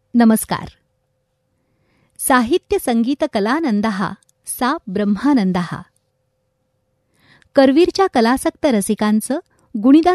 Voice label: Marathi F

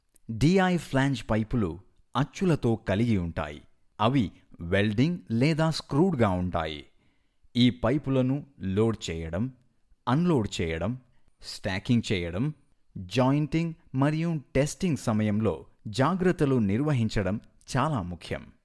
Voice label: Telugu narration

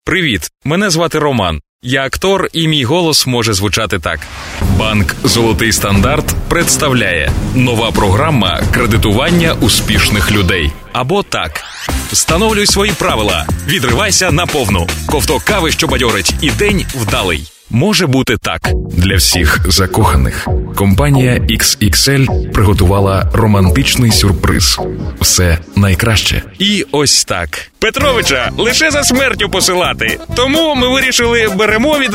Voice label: Ukrainian M Narration

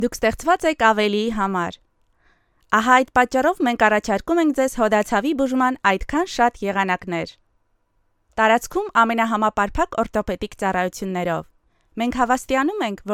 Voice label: Armenian F; voice over

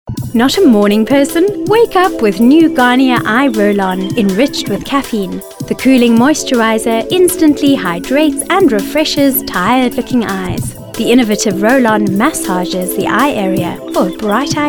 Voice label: English South Africa F Commercial; voice over; accent of south africa

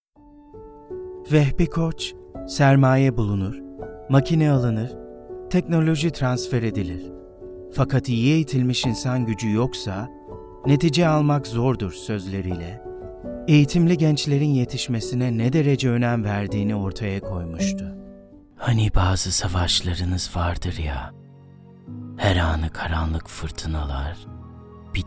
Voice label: Turkish, M, Voicereel

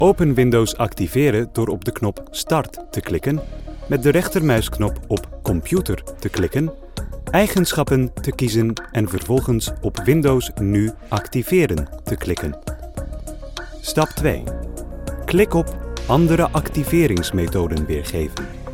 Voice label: Dutch M Corporate; voice over

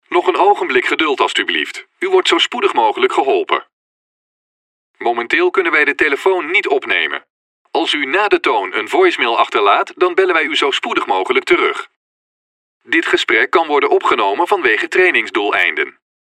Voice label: Dutch, M, IVR

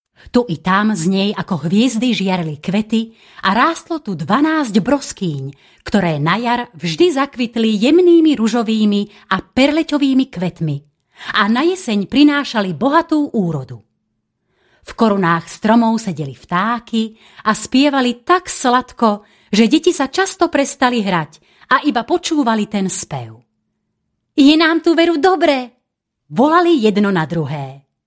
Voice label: Slovak F Narration

